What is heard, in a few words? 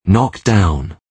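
"knock down", English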